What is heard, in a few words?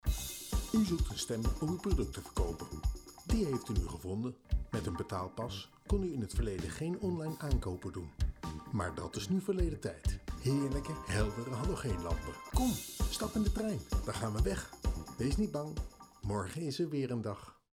voice-over showreel